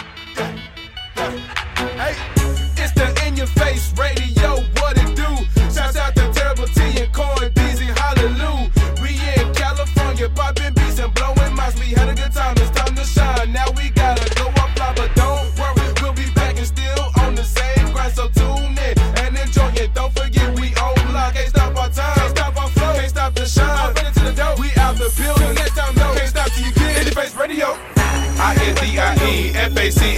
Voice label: Radio Drop; Indie Face